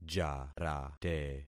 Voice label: "jarate", concat syllables; Affixing experiment

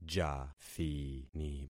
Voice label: "jathini", concat syllables; Affixing experiment

